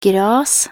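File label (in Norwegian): "gras", Norwegian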